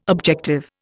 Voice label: "objective", Indian